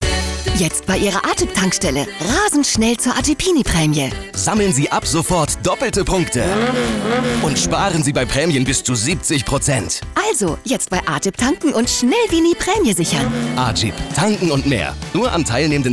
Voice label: Aufgeregt; Fremdtake

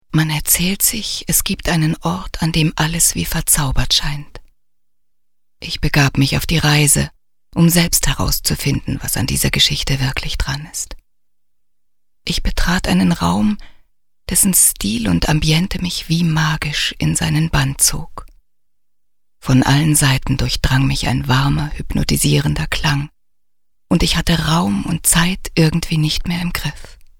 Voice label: Schauspiel Sanft

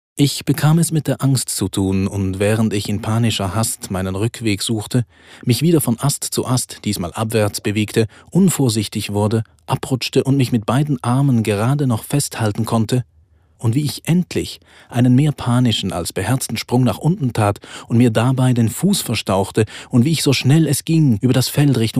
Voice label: Schauspiel Neutral

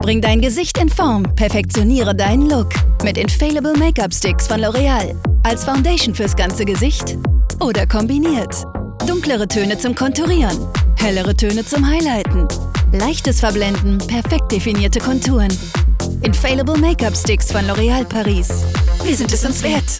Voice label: Dynamisch; LOreal

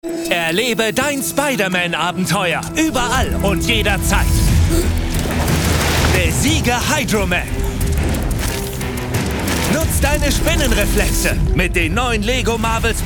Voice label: Werbung Dynamisch

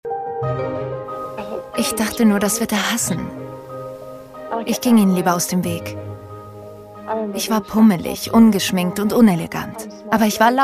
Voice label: Fremdtake Freundlich

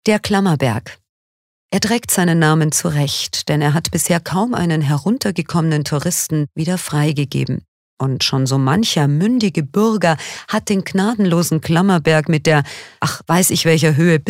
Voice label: Kommentar Neutral

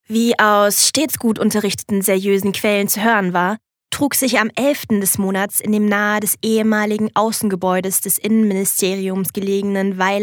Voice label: Trocken Neutral

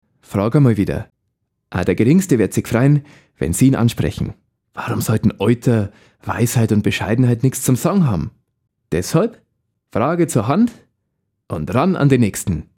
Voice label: Dialekt Bayerisch

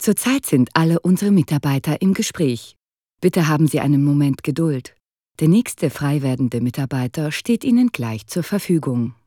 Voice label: Kommentar Freundlich

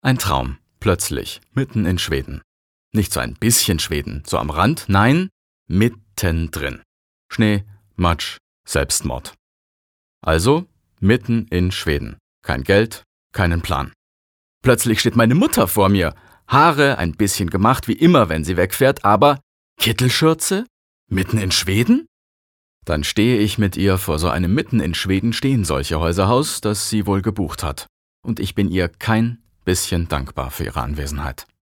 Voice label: Schauspiel Dynamisch

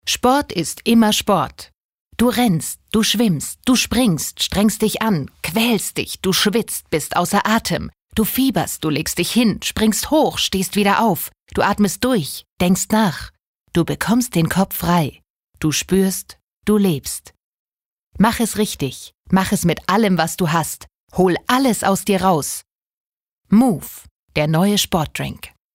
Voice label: Move Dynamisch

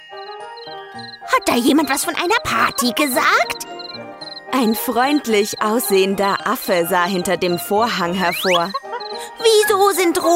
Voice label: Charge, Comic

